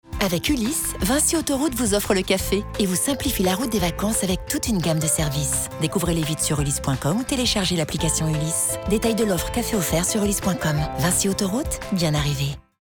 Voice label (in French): Pub Radio Mutine fluide; Vinci Autoroute